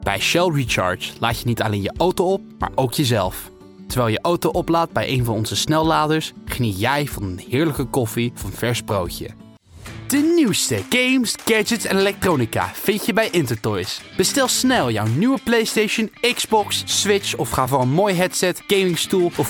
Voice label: Dutch